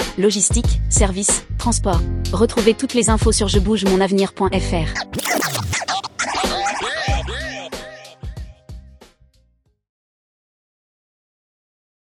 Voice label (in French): radio agent portuaire